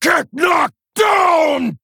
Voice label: Male Fel Orc Attack